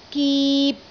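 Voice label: "gip3", Cantonese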